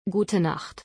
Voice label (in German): "Gute Nacht", German